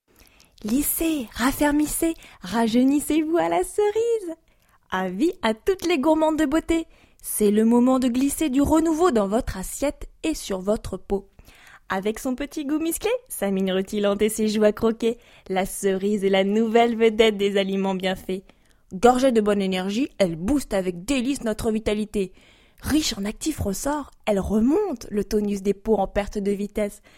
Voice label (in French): voix souriante; pg beaute; Oui FM